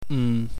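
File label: "m", Cantonese, low falling tone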